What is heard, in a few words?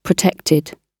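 "protected", British English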